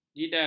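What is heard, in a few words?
"jidal", Malay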